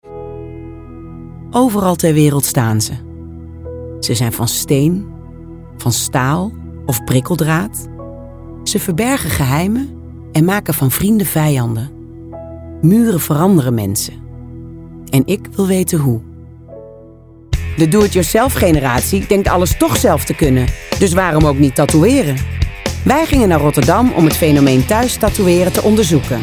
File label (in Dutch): narratief